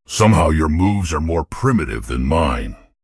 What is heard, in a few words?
happy; fertig classic games